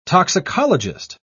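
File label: "toxicologist", British English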